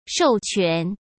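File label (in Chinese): "授权" Mandarin Chinese; chinese-flashcards; hsk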